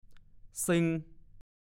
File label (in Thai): "ซึง", ภาษาโคราช